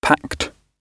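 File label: "packed", British English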